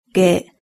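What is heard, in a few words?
"ge", Japanese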